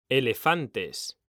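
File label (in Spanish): "elefantes", Spanish, male; mekanta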